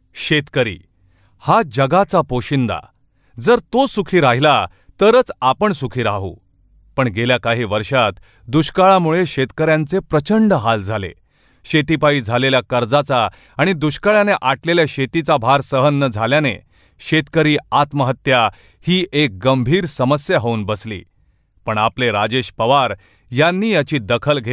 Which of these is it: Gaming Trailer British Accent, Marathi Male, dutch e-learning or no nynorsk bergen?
Marathi Male